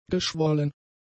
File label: "geschwollen", German; adjectives